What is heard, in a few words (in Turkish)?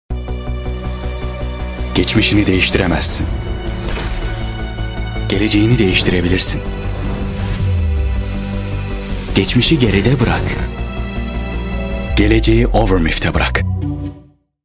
reklam seslendirme